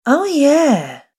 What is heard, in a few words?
"Oh Yeah" Female Voice; Recorded Voice Sound Effects